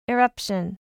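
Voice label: "eruption", English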